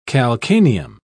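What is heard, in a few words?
"calcaneum", English, British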